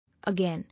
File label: "Again", English